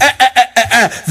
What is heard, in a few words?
"eh eh eh eh eh", voice